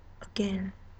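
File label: "again", Chinese female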